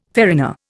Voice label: "farina", Indian